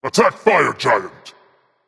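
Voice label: attack female berserker; smite; cuchulainn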